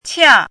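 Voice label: "qia4" Mandarin Chinese, falling tone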